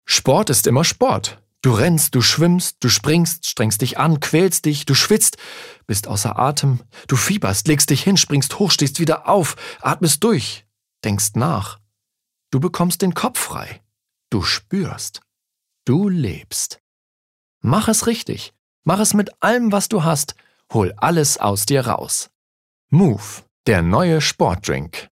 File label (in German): Move Dynamisch